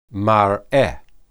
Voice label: "mareh", Hebrew

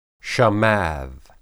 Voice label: "shamad", Hebrew

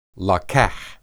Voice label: "laqah", Hebrew

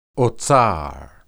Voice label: "otsar", Hebrew

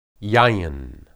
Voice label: yayin, hebrew

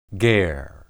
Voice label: "ger", Hebrew

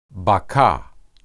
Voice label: "bakah", Hebrew

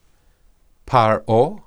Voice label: pharoh, hebrew

